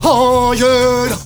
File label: male Zulu, male noise; Heart of Africa; Spectrasonics; Heart of Africa 1